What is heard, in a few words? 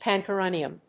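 "pancuronium", English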